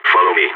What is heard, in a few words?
radio follow me, balkan; vo